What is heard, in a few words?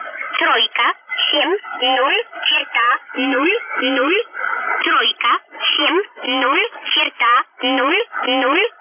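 numbers station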